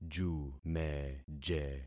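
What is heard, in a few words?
"jumeje", concatenated syllables; Affixing experiment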